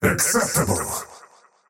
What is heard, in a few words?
last hit pain, faceless void; dota